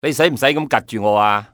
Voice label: stare, male; XBOX; civ